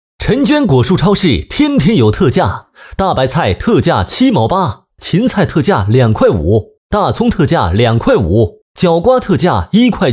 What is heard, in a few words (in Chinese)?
男, 促销 果蔬超市